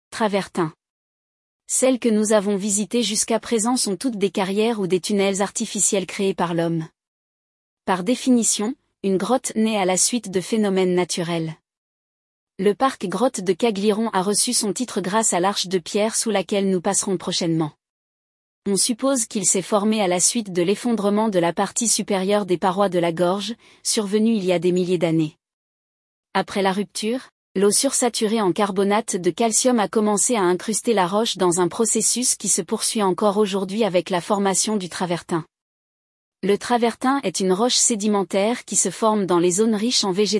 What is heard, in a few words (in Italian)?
Audioguida FRA Travertino